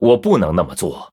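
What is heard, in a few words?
Male, Chinese; Blood Elf ERROR PLAY; zh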